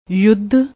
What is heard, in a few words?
"yudd", Punjabi